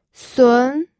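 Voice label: "sun2", Mandarin Chinese pinyin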